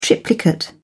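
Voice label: "triplicate", British English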